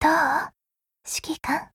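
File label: Japanese; Groza; Dreamscape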